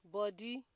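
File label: "body", Nigerian Pidgin, female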